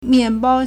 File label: "mian4bao1", Chinese